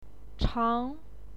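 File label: "chang", Mandarin Chinese, second tone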